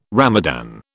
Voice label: "Ramadan", British

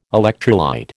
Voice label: "electrolyte", American

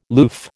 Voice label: "loof", American

